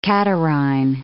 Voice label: "catarrhine", English